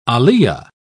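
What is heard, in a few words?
"aliyah", British English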